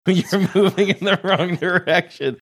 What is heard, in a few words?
laughing saying youre moving in the wrong direction